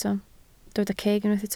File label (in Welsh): "ceg", Welsh